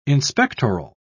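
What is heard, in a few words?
"inspectoral", British English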